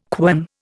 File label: "quern", Indian